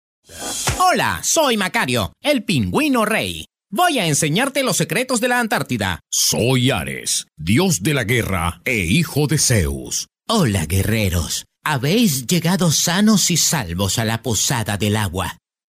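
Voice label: Spanish Latin American, male, spanish americas voice over talent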